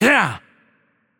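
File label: Turkish attack heavy voice; bloom minigame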